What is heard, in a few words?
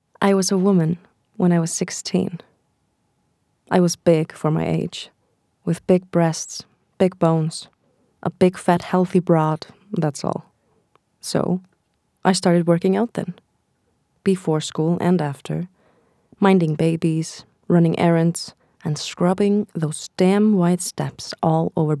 Enska US; leikkona ættuð frá Íslandi og Grænhöfðaeyjum